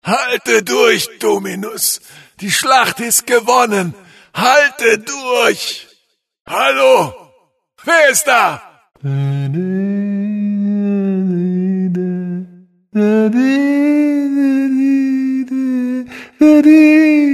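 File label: path, German; God of War Collection Volume 2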